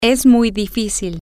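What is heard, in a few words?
it is very difficult, spanish, female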